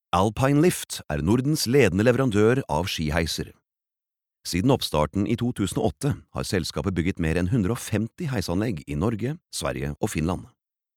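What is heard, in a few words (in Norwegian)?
Norwegian informativ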